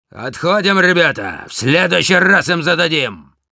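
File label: Demoman jeers, russian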